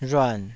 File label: "rhuan3", taiwanese